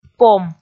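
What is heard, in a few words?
"пом", Komi, female; kpv; audio dict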